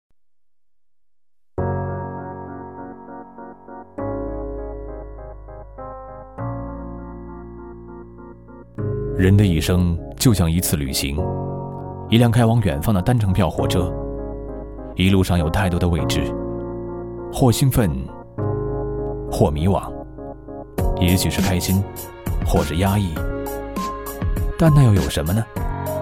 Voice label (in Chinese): 男 电视广告, 国语男声配音, 年轻磁性; 相信努力的力量